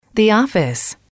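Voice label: the office, female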